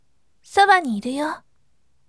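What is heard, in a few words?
"sobaniiru", bright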